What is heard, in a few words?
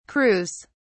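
"crews", British English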